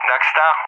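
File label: "Darkstar", English speech; CallSign; Allied Flight; DCS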